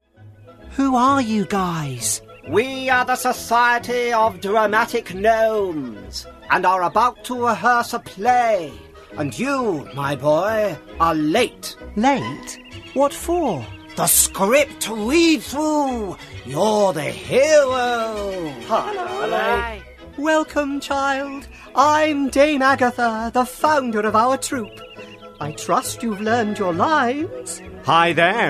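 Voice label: Animation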